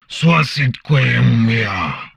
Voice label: humanoid male; Stellaris

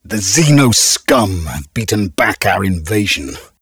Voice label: advisor notification invasion failed, xenophobe; Stellaris; ethics

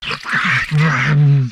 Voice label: humanoid male; Stellaris; humanoids

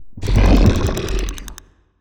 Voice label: portrait synthetic; Stellaris; machineage

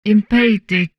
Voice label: humanoid portrait female; Stellaris; humanoids

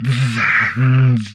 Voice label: humanoid portrait male; Stellaris; humanoids

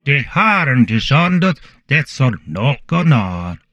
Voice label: humanoid male; Stellaris; humanoids

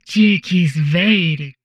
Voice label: humanoid portrait female; Stellaris; humanoids

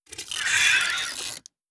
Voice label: robot avian greetings; Stellaris; synthetic dawn

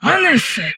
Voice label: humanoid portrait female; Stellaris; humanoids

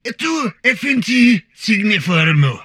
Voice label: humanoid portrait male; Stellaris; humanoids

